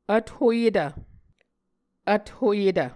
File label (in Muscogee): "vthoyetv", Muscogee